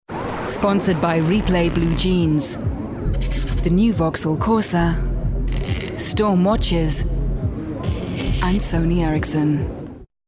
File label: Sponsorship Ident; Vocal Point